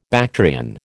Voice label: "Bactrian", American